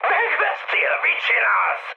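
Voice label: Hungarian player killed ally mood high, speech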